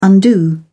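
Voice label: "undo", British English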